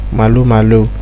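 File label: "malu malu", Nigerian Pidgin, male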